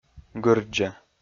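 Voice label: "гырддза", Komi, male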